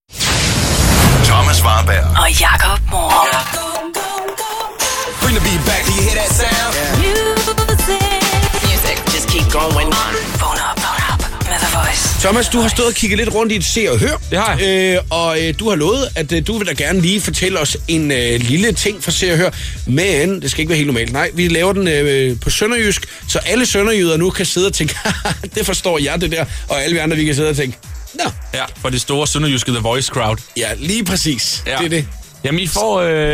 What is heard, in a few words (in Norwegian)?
med dialekt